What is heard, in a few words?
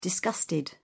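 "disgusted", British English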